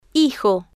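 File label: "hijo" Spanish, female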